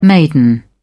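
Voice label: "maiden", British English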